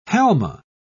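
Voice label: "halma", British English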